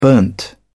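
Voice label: "burnt", British English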